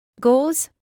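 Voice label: "gauze" English, British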